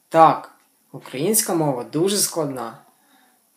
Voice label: dialogue, Ukrainian